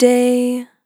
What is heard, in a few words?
"Short Day", vocal samples; Words